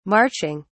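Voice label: "marching", English, British English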